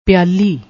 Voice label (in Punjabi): "piaali", Punjabi